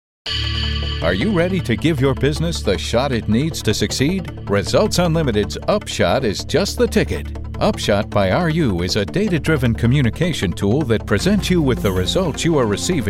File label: Narration